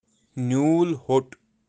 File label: "nyulhot", kashmiri; kashmiri birds